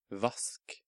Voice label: "Vask", Norwegian

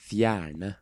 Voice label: "Fjerne", Norwegian

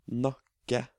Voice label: "Nakke", Norwegian

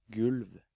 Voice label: "Gulv", Norwegian

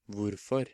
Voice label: "Hvorfor", Norwegian

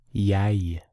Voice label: "Jeg", Norwegian

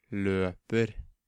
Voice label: "Løper" verb, Norwegian

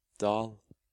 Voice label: "Dal", Norwegian

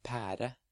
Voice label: "Pære", Norwegian

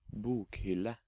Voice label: "Bokhylle", Norwegian

